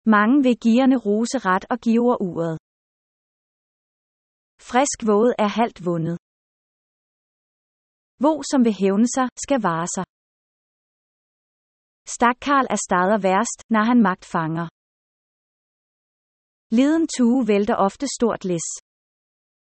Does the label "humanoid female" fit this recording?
No